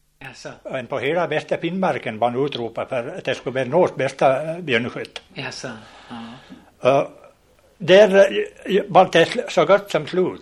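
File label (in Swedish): Nås Dalarna, Svenska sagor, dialekter; Folkminnen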